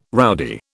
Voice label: "rowdy", British